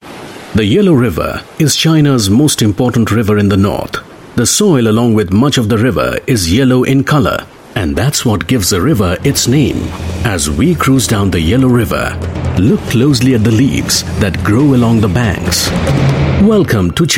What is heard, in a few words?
Indian English Documentary; Male